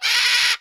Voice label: Zombie